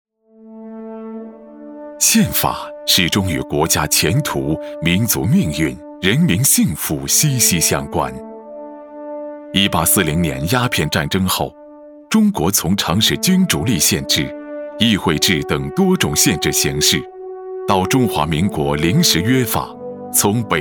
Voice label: 专题 政府 宪法 稳重, 男国

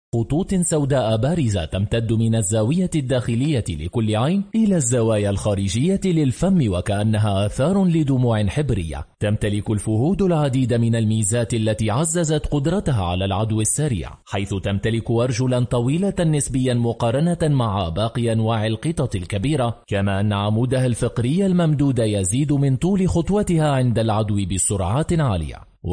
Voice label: 阿拉伯语男 外语 小语种

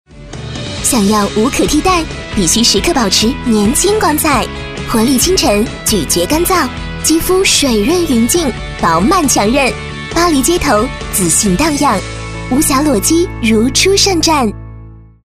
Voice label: 广告 化妆品 美肤 活力, 女国; 逆时光

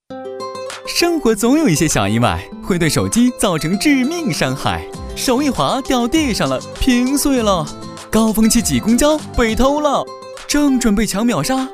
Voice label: 宣传片, 病毒, 金融延保; 苏宁金融延保

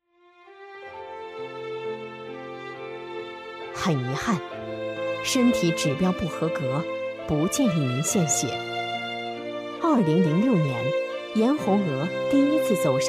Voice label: 专题 人物 感人讲述, 女

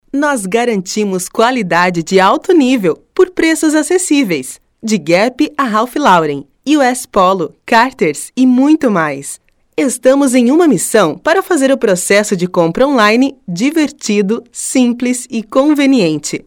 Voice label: 葡萄牙语女 外语

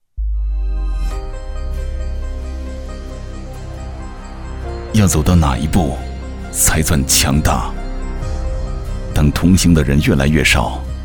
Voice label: 男国 广告 汽车 沉稳; 别克汽车

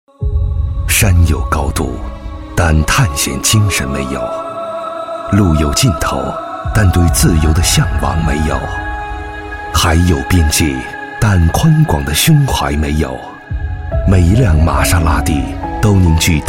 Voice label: 广告 汽车 玛莎拉蒂

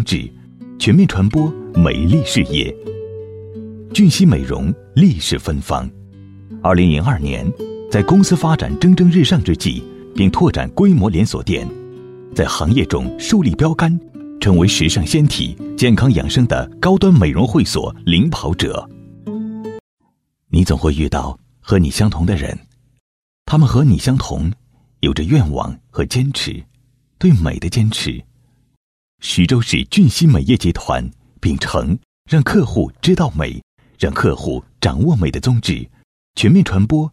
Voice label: 专题 企业 美业 舒缓

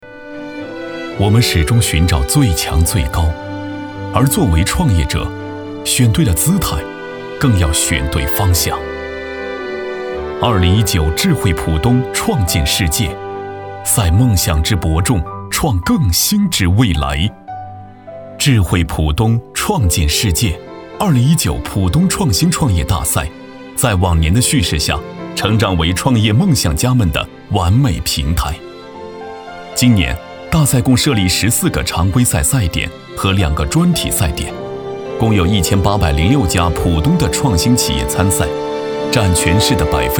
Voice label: 宣传片 企业 自然, 男; 智汇浦东

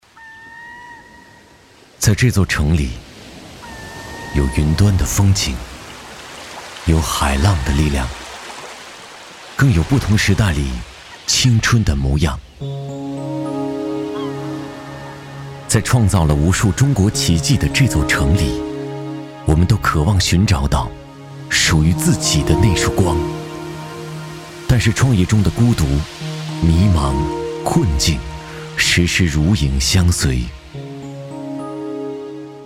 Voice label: 其他 旁白, 男; 光旁白

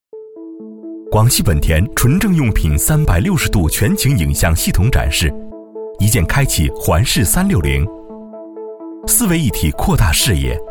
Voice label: 广告 汽车, 男国; 广汽本田

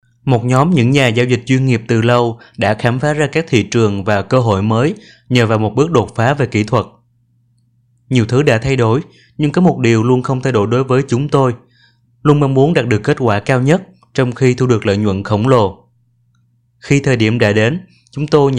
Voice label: 越南语男 外语 小语种

